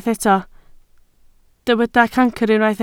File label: "cancr", Welsh